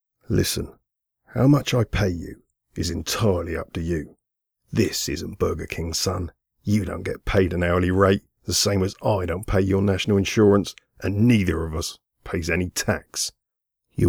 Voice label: Gangster